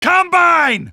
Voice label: combine, male; Half-Life 2